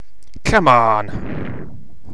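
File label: "come on", British English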